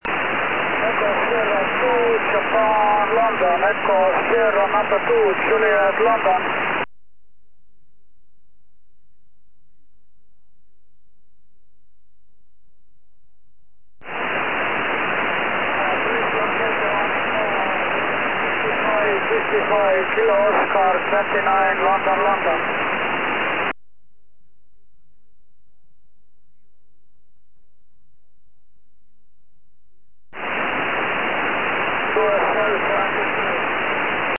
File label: ssb 4m